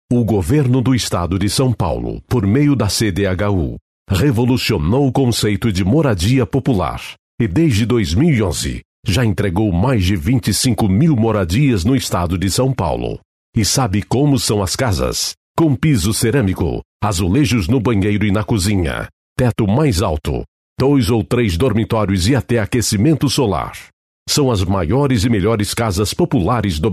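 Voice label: Brazilian Portuguese, male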